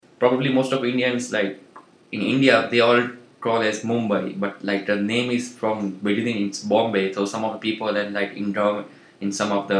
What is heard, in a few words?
India Vadodara Male 34